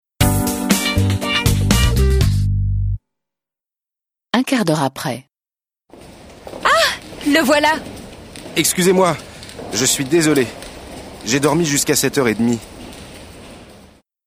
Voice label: French